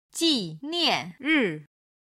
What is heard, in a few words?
"jinianri", Chinese